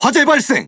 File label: Ko Speech Alarms Fire Alarm; World Of Warships